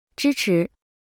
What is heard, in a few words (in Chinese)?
"支持", Mandarin